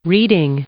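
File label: "reading", English, female; noun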